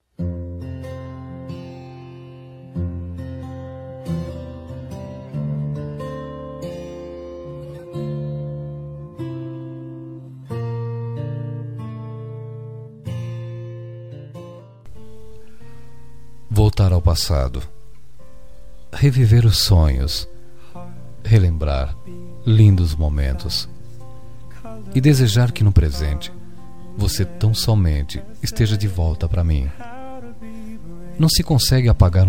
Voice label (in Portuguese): dia dos namorados ex masculina; dia dos namorados para ex namorada voz masculina